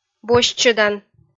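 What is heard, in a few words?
"босьтчӧдан", Komi, female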